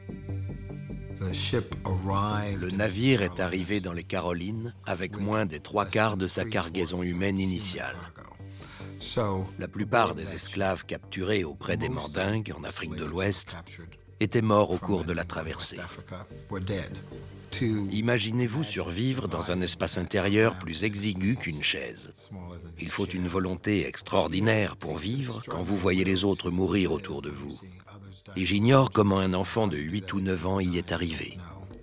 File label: voice over; Histoire tv; SPAIN SECRET CONQUEST